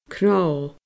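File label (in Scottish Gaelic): "cnò", Scottish Gaelic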